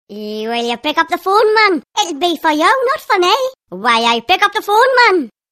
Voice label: Geordie Voice